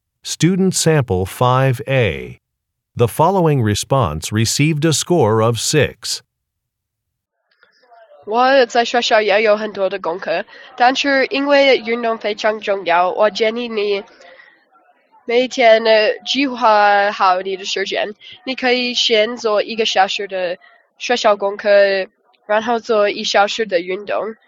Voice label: chinese conversation